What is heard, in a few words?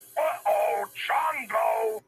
uh oh; chongo